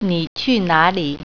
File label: where R U going, mandarin